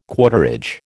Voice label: "quarterage", American